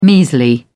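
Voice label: "measly", British English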